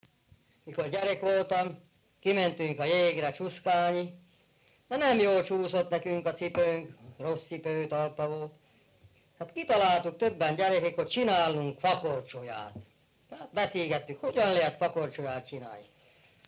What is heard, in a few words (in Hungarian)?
nyelvjaras gyujtesek